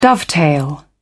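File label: "dovetail", British English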